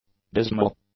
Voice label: "dismal", English; adjectives